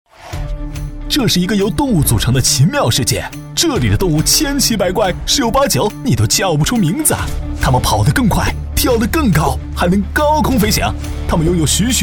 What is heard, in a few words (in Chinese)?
男, 片头, 介绍, 活泼